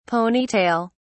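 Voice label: "pony tail", British English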